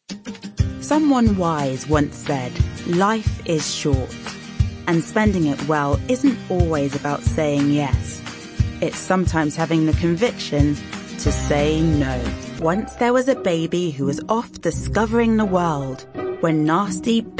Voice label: commercial